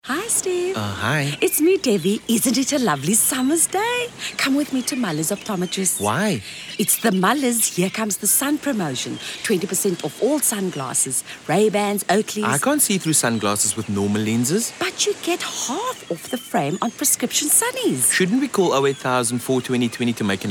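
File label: english South African female commercial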